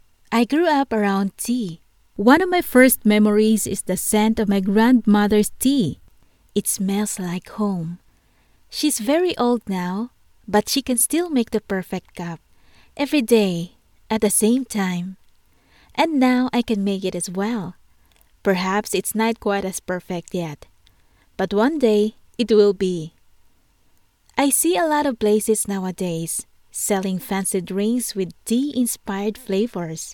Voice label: English (Asian), Female